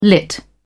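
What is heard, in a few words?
"lit", British English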